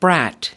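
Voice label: "brat", US English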